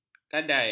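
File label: "kadal", Malay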